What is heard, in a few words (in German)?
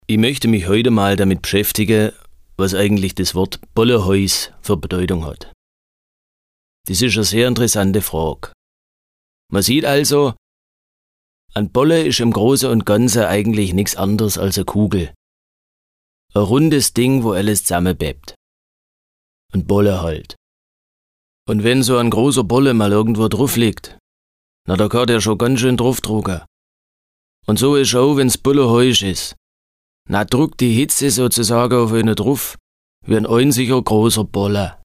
Dialekt Schwäbisch